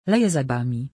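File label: rain, Polish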